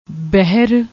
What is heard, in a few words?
"behar", Punjabi